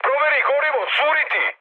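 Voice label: Serbian tank damaged mood high; War Thunder; speech srb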